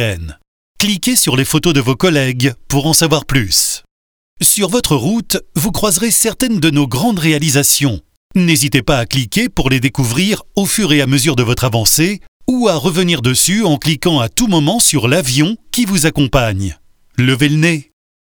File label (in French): Module e-learning, Voix institutionnel; BOUYGUES IMMO